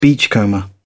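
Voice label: "beachcomber", English, British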